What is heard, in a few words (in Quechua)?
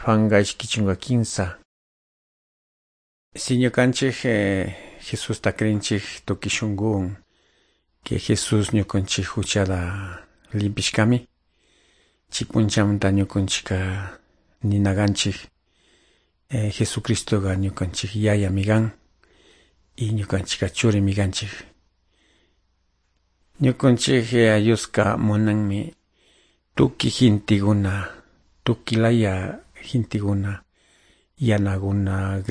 good news quichua de calderon